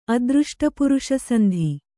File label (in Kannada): "ಅದೃಷ್ಟಪುರುಷಸಂಧಿ", kannada